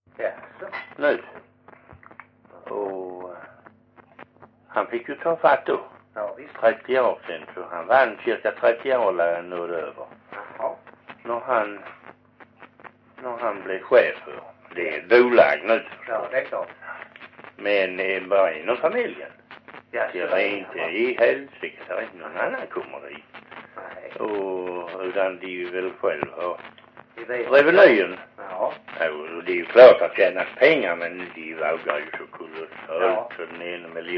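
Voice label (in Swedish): Perstorp Skåne, Svenska sagor dialekter; Folkminnen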